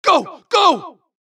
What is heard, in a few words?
supress, dialogue Allies; mohaa; Mission 2